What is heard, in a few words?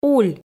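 "уль", Komi, female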